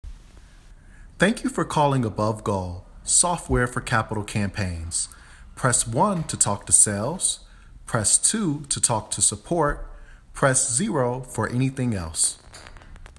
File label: Voicemail